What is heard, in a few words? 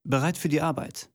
german voice; wyrmsun